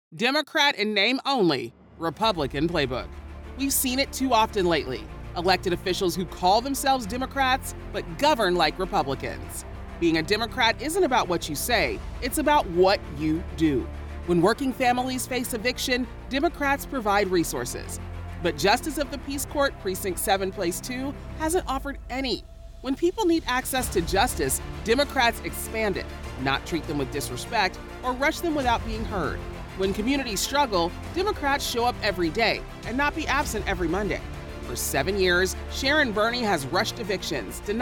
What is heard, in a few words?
Political Spots, Southern Political Ad, African-American, Female Voice Over; Houston